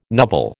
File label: "nubble", American